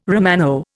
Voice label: "Romano", Indian

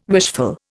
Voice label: "wishful", Indian

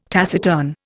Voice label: "taciturn", Indian

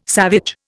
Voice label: "savage", Indian

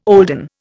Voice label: "olden", Indian

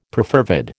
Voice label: "perfervid", American

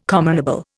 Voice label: "commonable", Indian

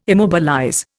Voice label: "immobilize", Indian

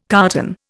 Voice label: "carton", Indian

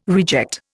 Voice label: "reject", Indian

